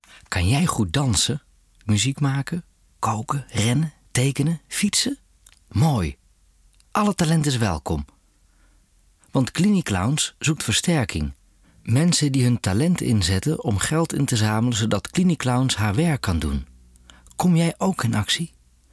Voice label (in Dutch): Rustig; Stem Demo; CliniClowns